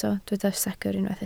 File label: "secr", Welsh